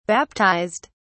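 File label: "baptised", British English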